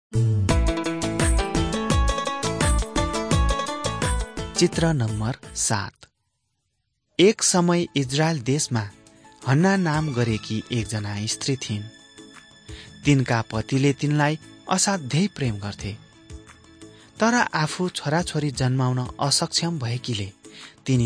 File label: servants of god nepali